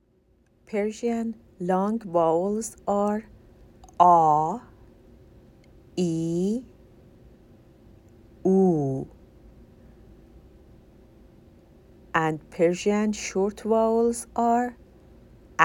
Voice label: Persian long and short vowels